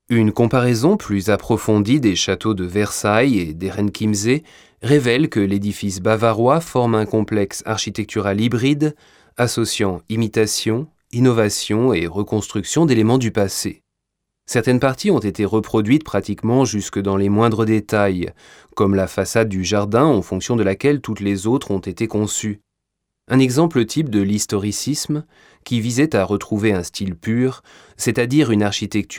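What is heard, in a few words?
fr audioguide, French; sprecher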